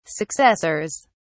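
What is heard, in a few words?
"successors", British English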